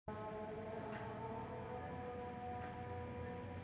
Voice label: voice message